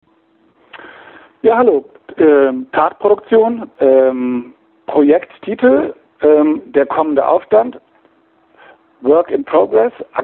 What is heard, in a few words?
Voicemail